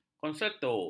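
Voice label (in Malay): "konserto", Malay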